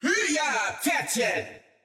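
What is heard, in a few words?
German; 奥丽莎（守望先锋2）; 终极技能; Jiii-haaa Lasso!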